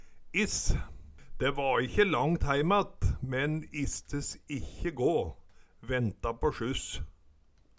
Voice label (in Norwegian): iss, Numedalsmål